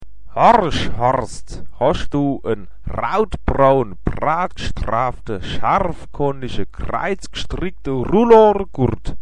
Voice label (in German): "Horsch", Mundart; woerterbuch